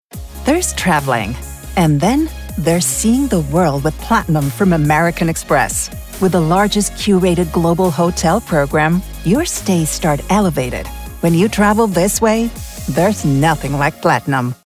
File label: spot, english commercial voice over; Amex